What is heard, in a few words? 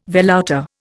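"velouté", Indian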